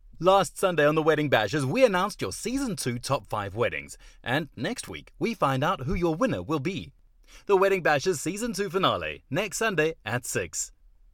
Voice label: english south african promos